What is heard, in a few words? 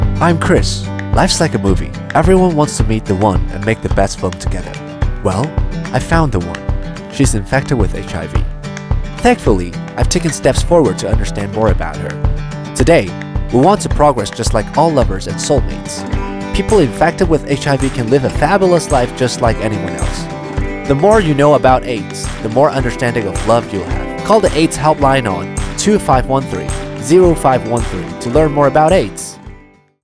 Radio English Version